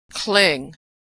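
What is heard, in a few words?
"cling", American English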